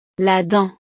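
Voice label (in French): "la dent", French; partes del cuerpo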